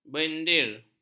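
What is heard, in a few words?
"bendir", Malay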